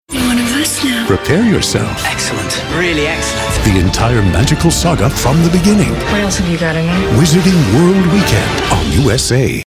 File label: mystical wonderous mystery magic; USA Harry Potter